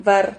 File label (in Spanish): "var", Silabas